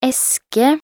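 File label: "eske", Norwegian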